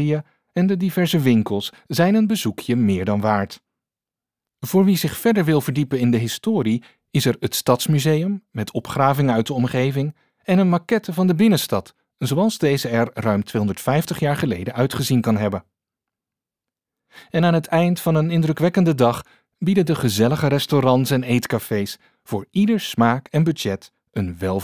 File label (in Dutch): Voice over uitnodigend